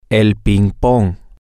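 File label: ping pong, Spanish, male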